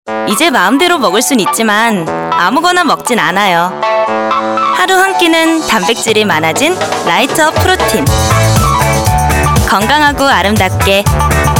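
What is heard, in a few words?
Korean, female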